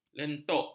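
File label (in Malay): "lentok", Malay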